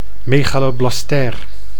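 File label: "megaloblastair", Dutch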